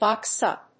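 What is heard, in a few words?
"box up", English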